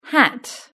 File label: "hat", British English